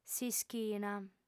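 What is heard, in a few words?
"s'ischina", female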